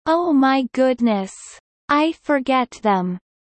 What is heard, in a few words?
low; four seasons